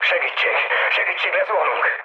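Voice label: Hungarian aircraft destroyed mood low, speech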